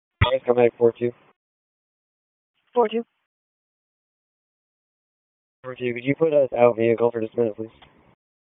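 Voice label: EMS Dispatch, p25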